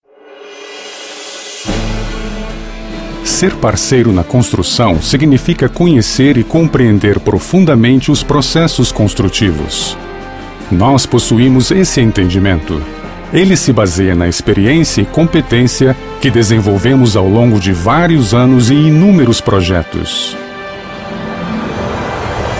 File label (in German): Brasilien M